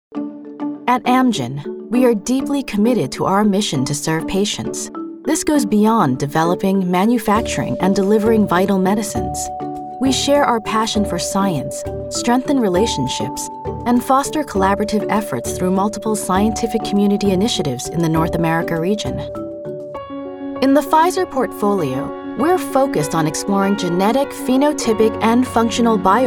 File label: English Narration, narration female